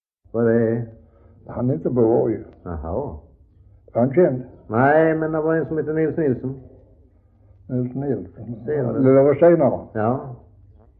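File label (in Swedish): Västra Ingelstad Skåne, dialekter; Folkminnen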